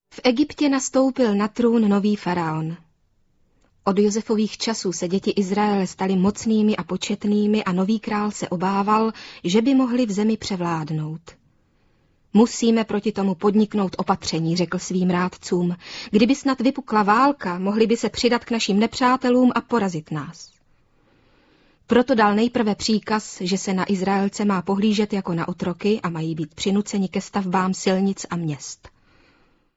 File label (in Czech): bible pro male i velke stary zakon